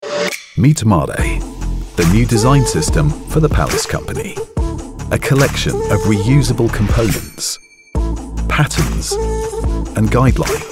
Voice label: Voiceover